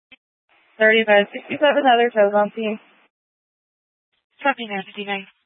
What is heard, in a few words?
Police Dispatch